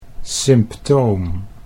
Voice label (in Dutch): "symptoom", Dutch